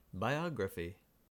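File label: "biography", American English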